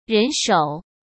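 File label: "人手", Mandarin Chinese; hsk; chinese-flashcards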